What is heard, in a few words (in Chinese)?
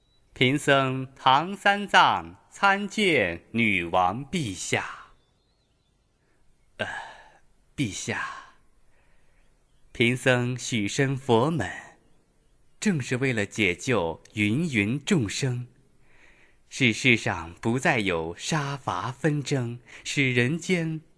动画 模仿, 男; 模仿唐僧